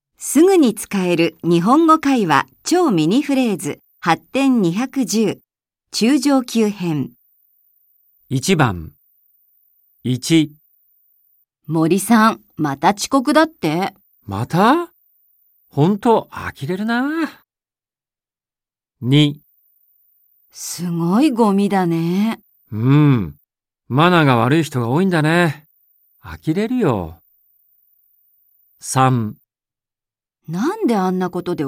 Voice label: Quick Japanese Conversation Phrases, Learn Japanese; 210 Quick Japanese Conversation Phrases